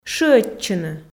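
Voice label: "шыӧдчыны", Komi, female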